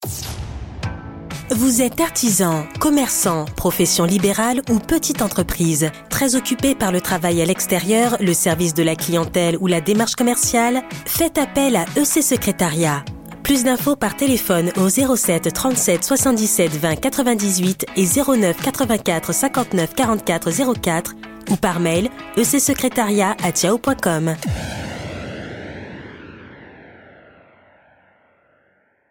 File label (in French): conseil promo Spot publicitaire radio; E.C. SECRÉTARIAT